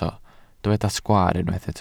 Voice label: "sgwaar", Welsh